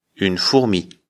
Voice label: "fourmi", lecture fr; afrikalan

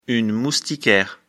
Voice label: moustiquaire; afrikalan; lecture fr

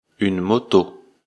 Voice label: "moto", lecture fr; afrikalan

